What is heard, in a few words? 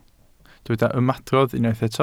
"ymadrodd", Welsh